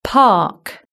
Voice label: "park", british english

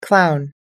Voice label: "clown", us english

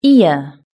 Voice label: "ear", British English

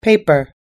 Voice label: "paper", us english

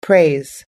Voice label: "praise", us-english

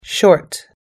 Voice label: "short", US English

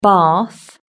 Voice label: "bath", British English

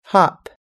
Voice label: "hop", us english

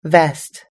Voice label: "vest", us english

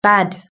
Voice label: "bad", British English

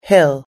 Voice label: "hill", us english

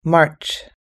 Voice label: "march", us-english

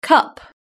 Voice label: "cup", British English

